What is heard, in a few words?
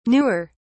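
"newer", British English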